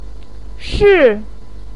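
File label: "shi", Mandarin pinyin, fourth tone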